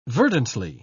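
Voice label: "verdantly", British English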